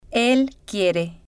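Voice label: "el Quiere", Spanish, female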